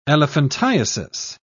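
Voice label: "elephantiasis", British English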